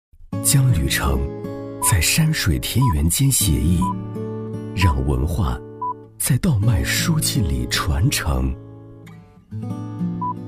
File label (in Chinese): 男, 文旅配音; 《乡旅漫生活》